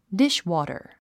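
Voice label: "dishwater", English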